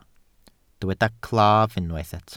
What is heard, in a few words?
"claf", welsh